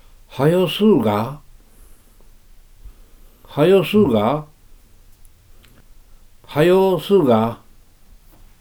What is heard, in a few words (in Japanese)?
出水方言, 普通の勧誘; [ハヨ] [スー(RF)ガア(FR) 「早くしよう」; wav形式のPCM音声です。録音は、T氏(1931年4月生まれ)にお願いしました。